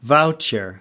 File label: "voucher", us